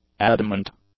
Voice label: "adamant", English; adjectives